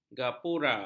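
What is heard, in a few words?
"gapura", Malay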